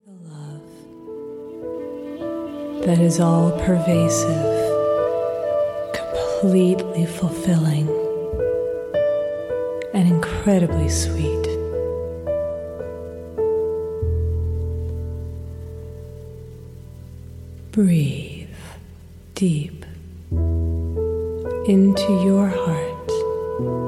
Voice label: Meditation On Love